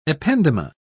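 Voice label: "ependyma", English, British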